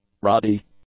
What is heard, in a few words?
"ruddy", English; adjectives